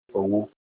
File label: cotton, Olukumi